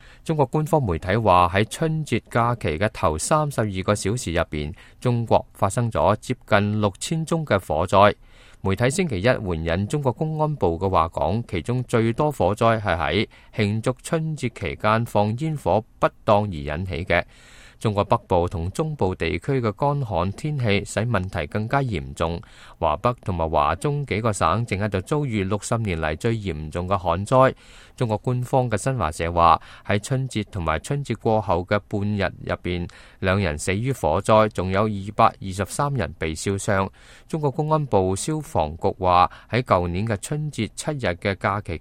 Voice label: cantonese, news